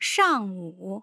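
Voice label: "shangwu", Mandarin Chinese, tone pairs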